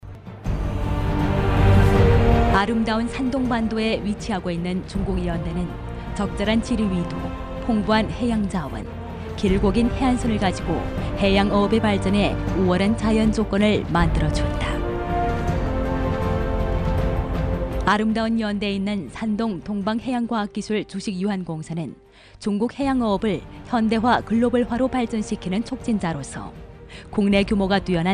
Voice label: 女 韩 大音 样音

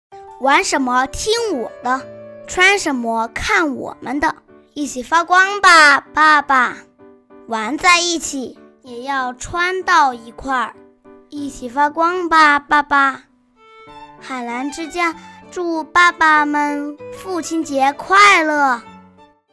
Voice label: 女 真男童; 海澜之家父亲节; 真童配音

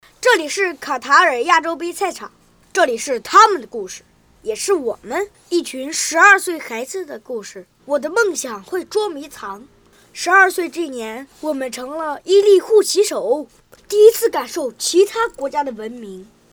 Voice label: 女 男真童; 真童配音; 护旗手视频

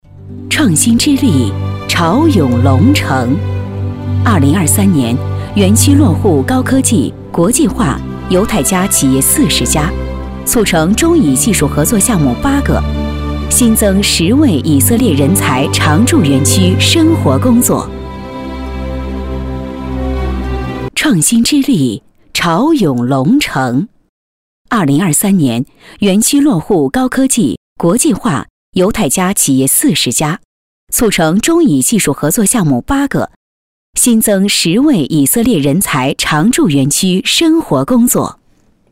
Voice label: 女国 新闻感; 新闻风格样音; 2023，我们这样回答（盛市长专版）

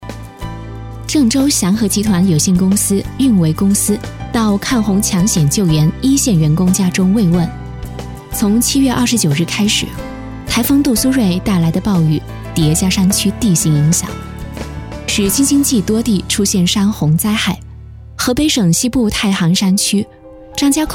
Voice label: 女国 新闻; 新闻风格样音; 郑州祥和集团有限公司运维公司到抗洪抢险救援一线员工家中慰问

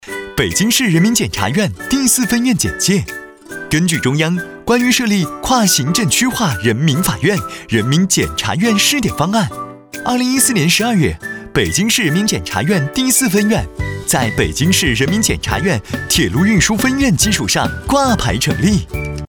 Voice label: 男 国 大音 活力; 检察院介绍

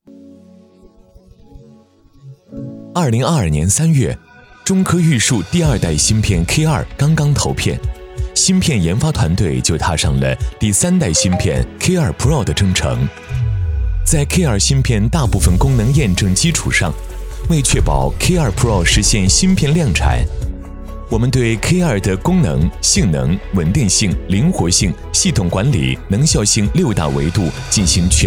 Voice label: 男 大音 科技 芯片; 中科驭数第二代芯片K2

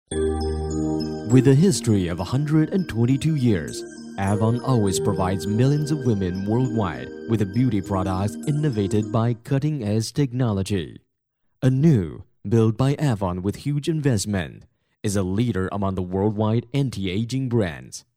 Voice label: 男英 宣传片 舒缓型; 雅芳

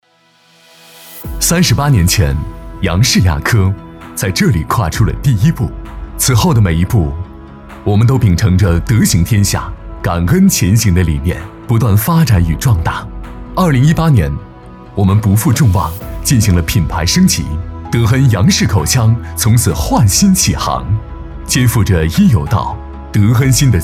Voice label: 男 大音 企业形象; 走心企业形象片样音; 德恩·杨氏口腔

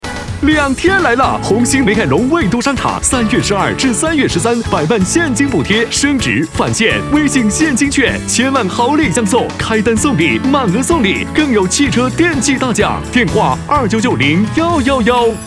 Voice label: 男国; 美凯龙

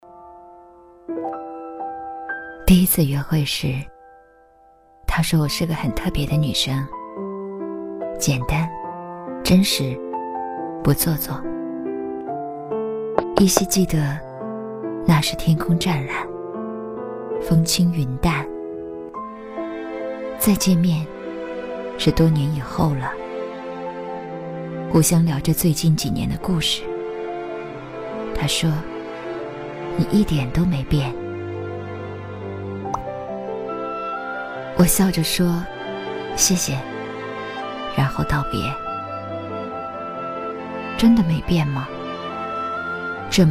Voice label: 女 国 大音

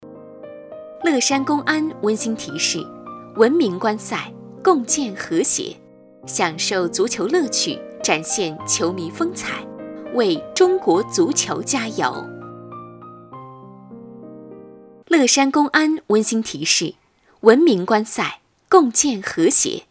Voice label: 女 提示 公安, 女声广播提示; 乐山

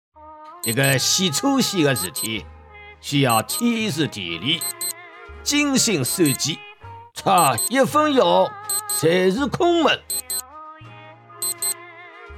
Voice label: 上海方言 男 大音 上海话 爷叔; 方言配音

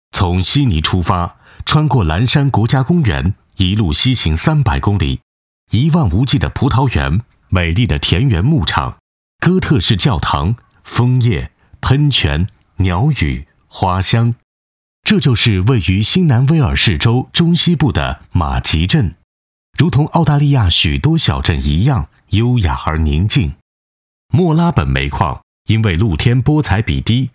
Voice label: 男 国 大音; 魅力莫拉本（模仿农夫山泉广告）

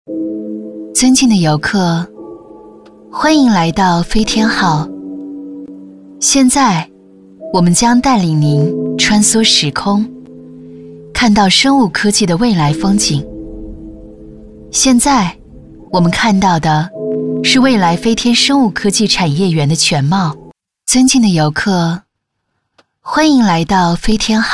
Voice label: 女 大音 展厅 科技感

